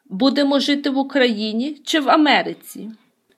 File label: dialogue, Ukrainian